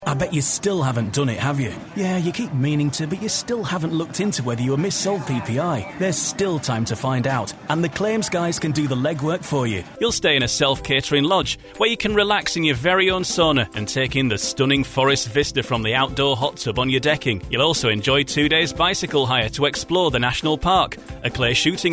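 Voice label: English, male, Northern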